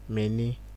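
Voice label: "many", Nigerian Pidgin, male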